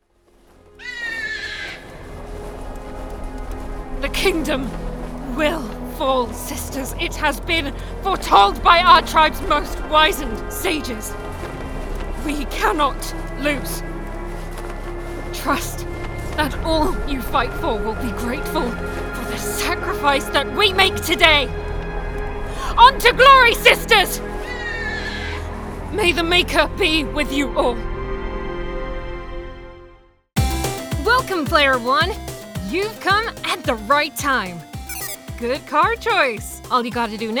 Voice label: Games Reel, British Female Voice Over; English (British)